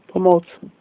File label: help, slovak